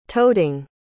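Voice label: "toadying", English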